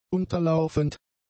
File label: "unterlaufend", German; adjectives